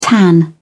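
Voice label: "tan", British English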